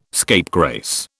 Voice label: "scapegrace", British

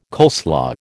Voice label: "coleslaw", American

